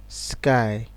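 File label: "sky", Nigerian Pidgin, male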